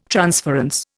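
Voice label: "transference", Indian English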